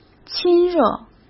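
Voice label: "亲热", Mandarin Chinese; hsk; chinese-flashcards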